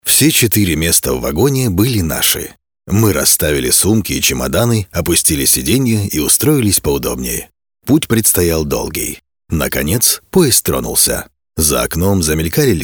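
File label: Russian male Casual, vo examples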